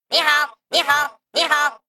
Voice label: Male Mech Greetings; zh